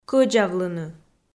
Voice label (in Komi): "кӧдзавлыны" Komi